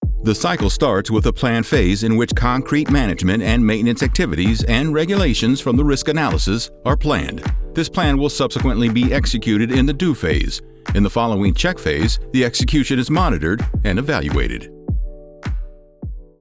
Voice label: elearning